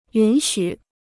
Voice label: "允许", Chinese